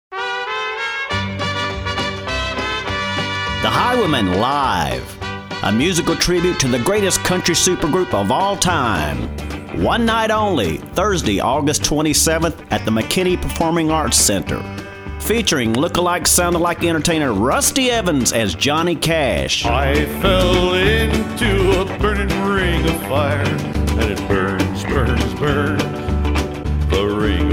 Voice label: commercial; Highwaymen